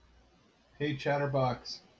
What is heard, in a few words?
hey chatterbox, en-us